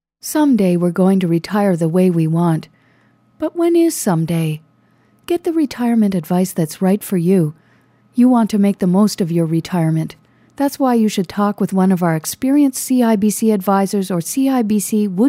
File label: voiceover; Ad: CIBC Retirement Advice